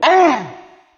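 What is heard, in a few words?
"uh", male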